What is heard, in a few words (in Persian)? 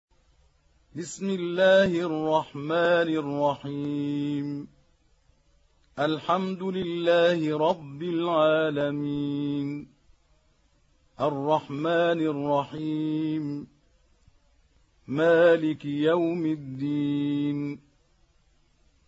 ترتیل سوره مبارکه حمد